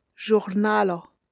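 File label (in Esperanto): "ĵurnalo", Esperanto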